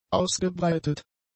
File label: "ausgebreitet", German; adjectives